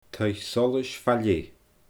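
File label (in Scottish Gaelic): "taigh solais fa leth", Scottish Gaelic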